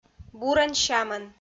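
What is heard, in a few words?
"бурӧн-сямӧн", Komi, female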